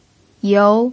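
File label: "you2", Mandarin Chinese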